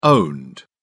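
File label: "owned", British English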